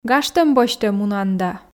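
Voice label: "гажтӧм босьтӧ мунан да", Komi, female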